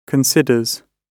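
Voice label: "considers", British English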